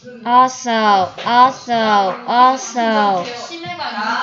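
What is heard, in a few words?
"also", spoken word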